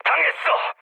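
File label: Korean aircraft destroyed mood medium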